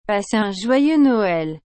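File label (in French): "Passez un joyeux Noël", French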